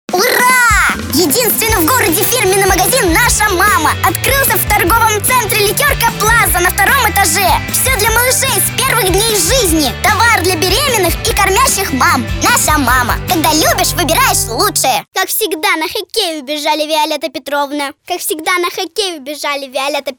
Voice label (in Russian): devochka 8 let